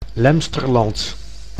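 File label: "Lemsterland", Dutch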